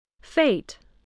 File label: "fate", voice